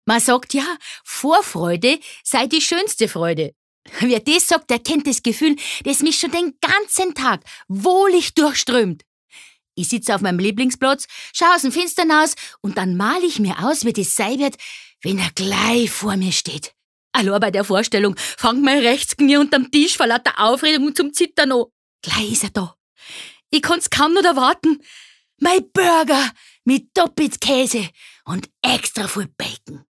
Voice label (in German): Versprecher Bayerisch; Kleine Freuden